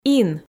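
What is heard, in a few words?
"ин", Komi, female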